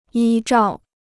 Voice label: "依照", Chinese

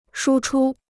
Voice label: "输出", Chinese

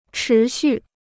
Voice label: "持续", Chinese

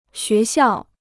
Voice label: "学校", Chinese

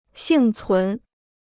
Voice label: "幸存", Chinese

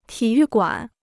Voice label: "体育馆", Chinese

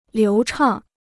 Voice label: "流畅", Chinese

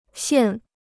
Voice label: "腺", Chinese

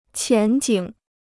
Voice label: "前景", Chinese

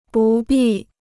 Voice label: "不必", Chinese

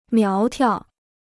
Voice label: "苗条", Chinese

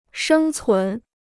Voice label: "生存", Chinese

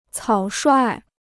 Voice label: "草率", Chinese

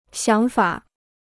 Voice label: "想法", Chinese

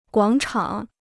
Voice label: "广场", Chinese

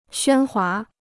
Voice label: "喧哗", Chinese

